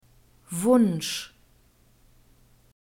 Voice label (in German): "wunsch", German